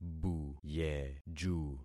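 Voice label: "buyeju", concatenated syllables; Affixing experiment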